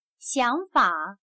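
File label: "想法", Chinese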